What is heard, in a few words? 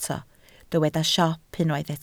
"siop", Welsh